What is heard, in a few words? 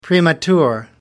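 "premature", English, British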